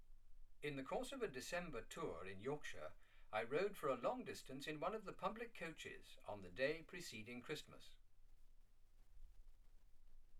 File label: male speech english